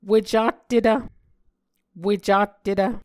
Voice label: "wecattetv", muscogee